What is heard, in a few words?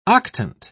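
"octant", English, British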